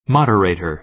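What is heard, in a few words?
"moderator", American English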